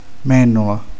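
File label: "mandorla", Italian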